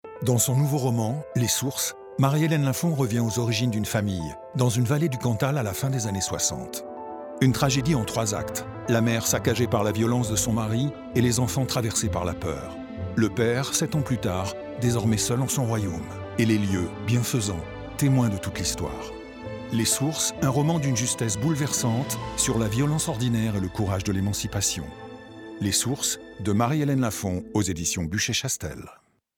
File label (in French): PUB LIVRE; LES SOURCES